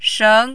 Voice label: "sheng2", Mandarin